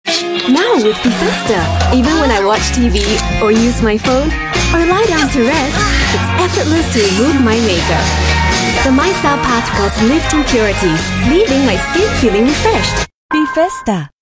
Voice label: Asian English; Bifesta